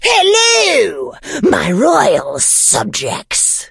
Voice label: evil start vo